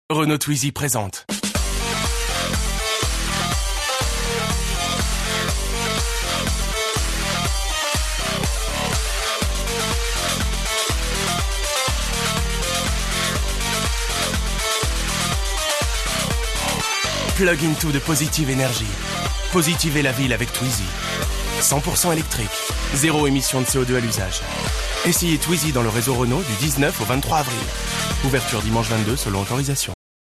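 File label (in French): spot, spots radio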